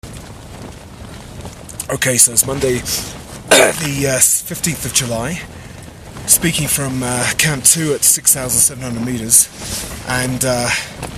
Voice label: Documentary